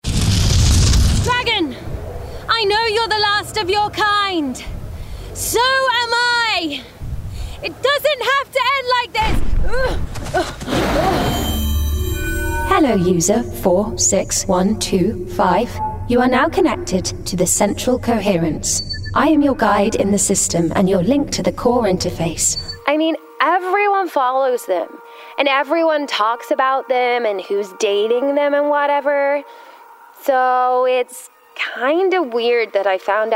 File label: Gaming Character Voicereel; Gaming/Character Showreel